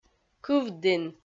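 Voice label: "кывдін" Komi, female; kpv audio dict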